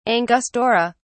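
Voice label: "angustura", British English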